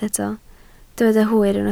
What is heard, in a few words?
"hwyr", Welsh